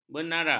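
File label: "benara", Malay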